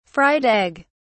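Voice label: "fried egg", British English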